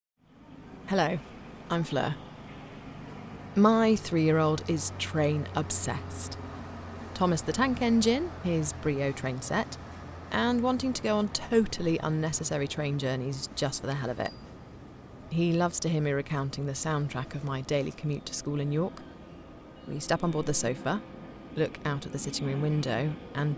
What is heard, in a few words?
home recording